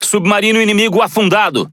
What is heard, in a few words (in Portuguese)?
Speech Destroy Enemy Sub, PT BR; World Of Warships